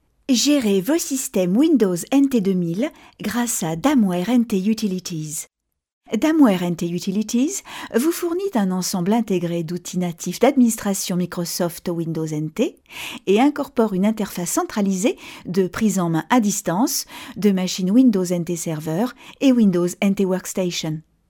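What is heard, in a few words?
Microsoft Presentation, French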